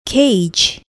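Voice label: "cage", English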